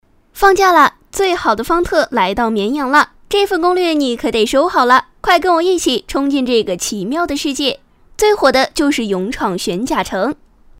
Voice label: 广告

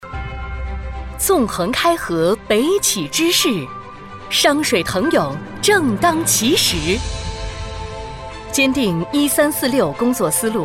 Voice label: 专题 大气 浑厚

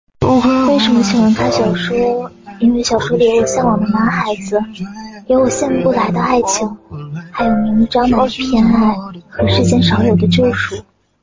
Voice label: 念白

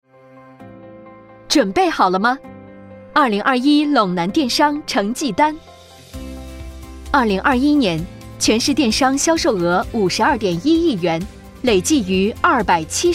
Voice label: 企业汇报积极; 陇南电商

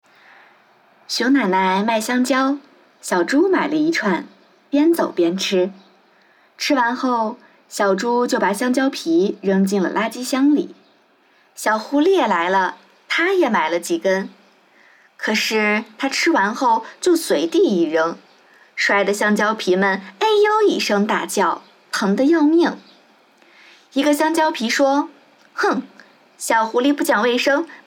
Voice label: 样音儿童读物

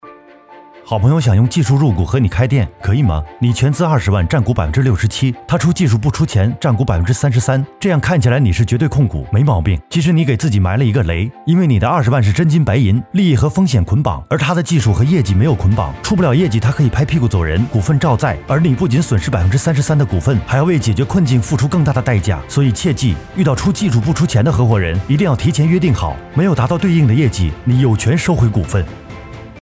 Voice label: 短视频知识普及类

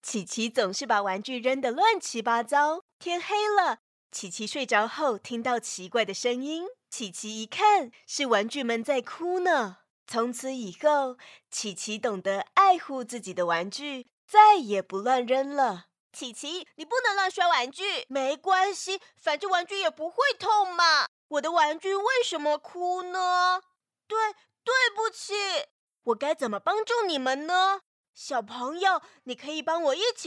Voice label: 兒童 遊戲 卡通 變聲 各種 角色

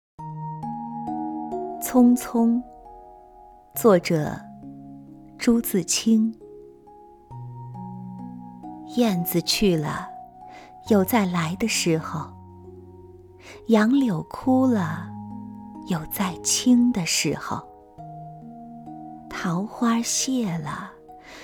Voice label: 美文朗读; 匆匆

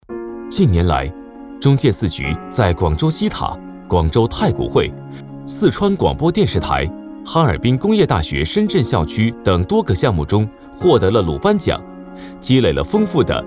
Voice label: 大气自然; 哈工大

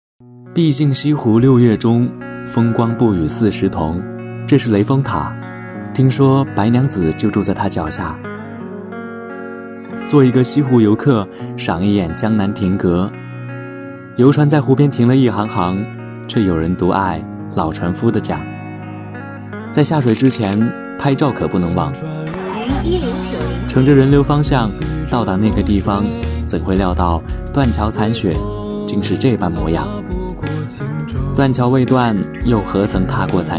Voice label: 抖音短视频配音

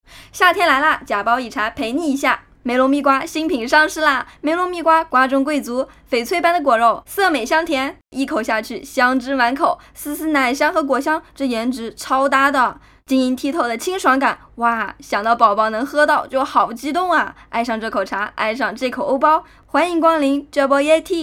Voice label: 无杂音配乐少女音欢快配音干音

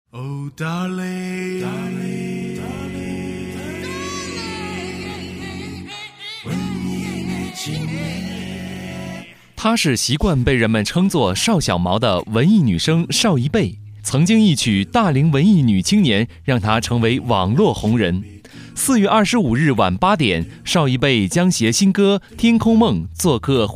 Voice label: 预告; 星播客邵夷贝

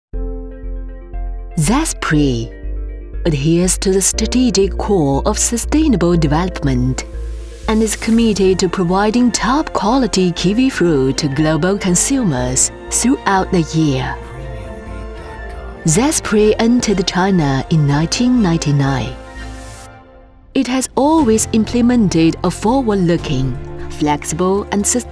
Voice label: 英文 猕猴桃 英式; 佳沛

